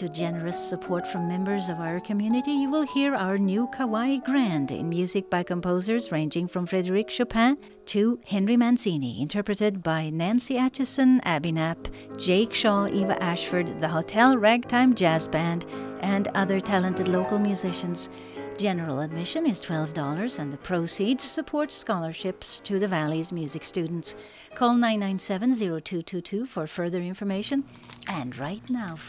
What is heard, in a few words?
radio ad keyboard confections; Cascadia